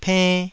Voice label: "penn2", Taiwanese